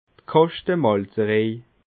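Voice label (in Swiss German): "kaschtemalzerej", Alsatian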